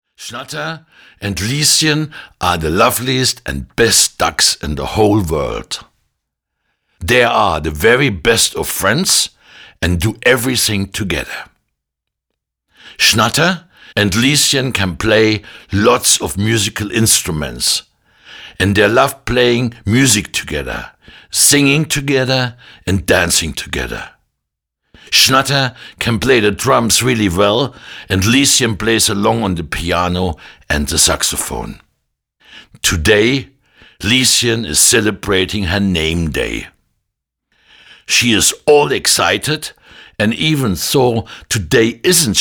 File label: audiobook sample; schnatter and lieschen